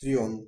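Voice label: saw desc, Bulgarian; household; tools; tuxpaint-stamps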